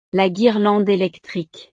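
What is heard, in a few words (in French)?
"la guirlande electrique", French; La guirnalda eléctrica; navidad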